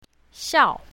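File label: "xiao4", pinyin, south, female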